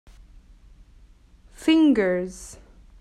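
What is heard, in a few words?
"Fingers", English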